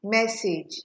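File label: "message", Nigerian Pidgin, female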